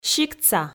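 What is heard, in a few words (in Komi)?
"сиктса", Komi, female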